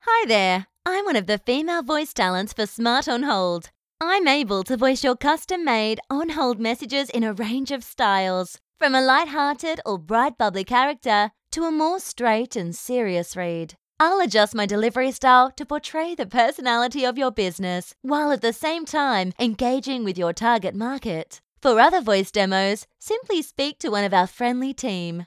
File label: female